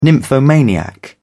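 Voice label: "nymphomaniac", English, British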